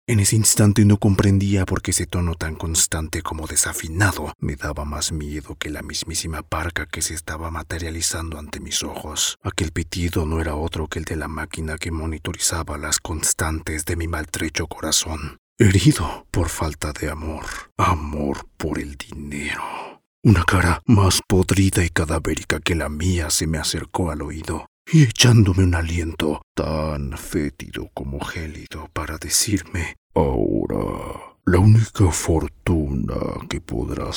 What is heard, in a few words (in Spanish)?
terror; Demo comerciales